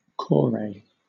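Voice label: "kore", British English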